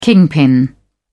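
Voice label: "kingpin", British English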